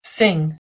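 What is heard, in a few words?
"sing", English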